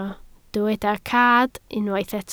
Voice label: "cad", Welsh